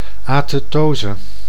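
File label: "athetose", Dutch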